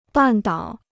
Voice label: "半岛", Chinese